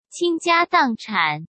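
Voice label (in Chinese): "倾家荡产", Mandarin Chinese; chinese flashcards; hsk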